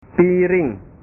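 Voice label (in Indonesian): "piring", Indonesian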